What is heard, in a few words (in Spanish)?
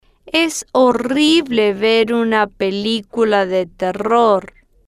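es horrible ver una pelicula de terror, spanish, female, slow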